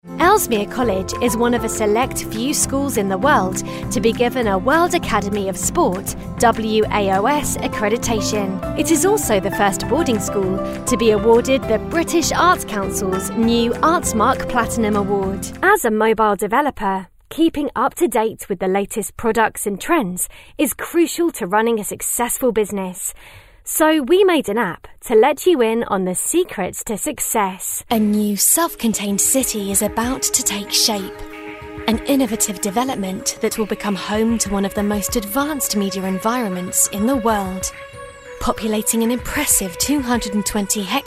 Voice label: Young Female, elearning voices